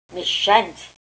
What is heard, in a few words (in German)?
"meschant", erzgebirgisch